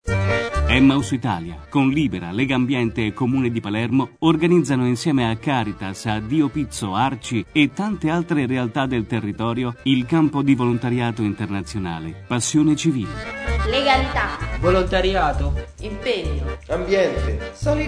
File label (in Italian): Spot radio; PASSIONE CIVILE